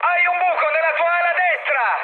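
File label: Italian right wing mood high, speech; War Thunder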